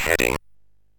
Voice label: MOS6581R3, Electronic Speech Systems